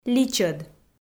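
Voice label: "личӧд" Komi, female; kpv audio dict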